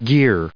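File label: "gear", English, us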